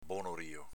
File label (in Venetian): "bonorio", Veneto